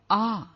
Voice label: airhaa kanna, punjabi; muharni